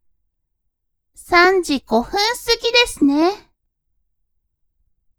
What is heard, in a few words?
woman